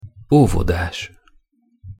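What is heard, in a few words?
"ovodas", Hungarian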